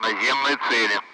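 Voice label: Speech Sound Russian Common Allied Flight; ground targets; DCS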